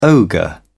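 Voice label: "ogre", British English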